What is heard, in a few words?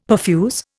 "perfuse", Indian; Dictionary